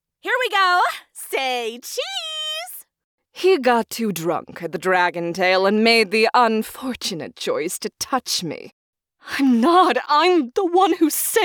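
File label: Standard North American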